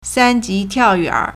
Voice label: "san1ji2tiao4yuan3", Chinese